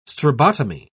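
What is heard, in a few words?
"strabotomy", British English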